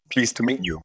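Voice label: VoiceActing es, Male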